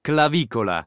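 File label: "clavicola", Italian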